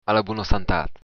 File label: cheers, occitan